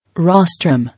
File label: "Rostrum", English